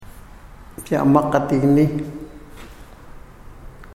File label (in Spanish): moqoit arbol; materiales bilingues; huellas